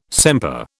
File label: "sempre", British